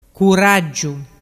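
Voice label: "curaggiu", Vernazzese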